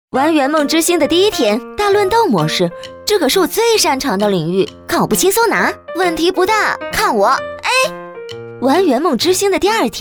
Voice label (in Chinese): 女 广告; 元梦之星